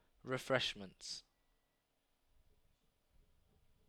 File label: kid on train, Shure headset; Speech